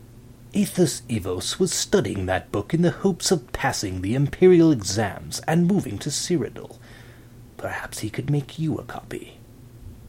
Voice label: imperial voice